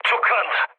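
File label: Korean player killed enemy mood low; War Thunder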